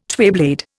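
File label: "twayblade", Indian English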